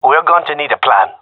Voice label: request plan, gendarmerie male; vo